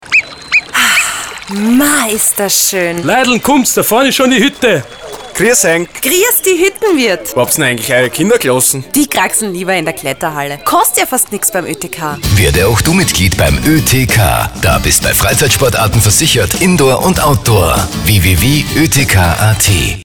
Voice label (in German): radiospot